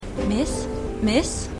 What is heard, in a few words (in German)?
Kassiererin; AlaskaDaily